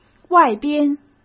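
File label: "外边", Mandarin Chinese; chinese flashcards; hsk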